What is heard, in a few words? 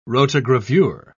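"rotogravure", British English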